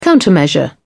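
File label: "countermeasure", British English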